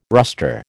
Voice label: "rustre", American